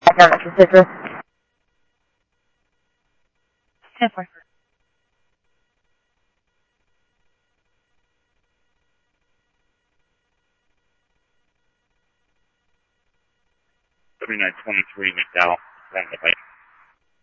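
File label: Simulcast police department, scanner audio trunk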